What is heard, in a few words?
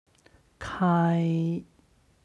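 "kai", Chinese; 开; 開く; HSK Level One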